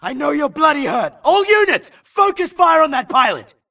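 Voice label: grunt detect Player Low Health; imc; Titanfall 2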